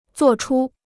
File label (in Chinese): "作出", Chinese